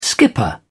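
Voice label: "skipper", British English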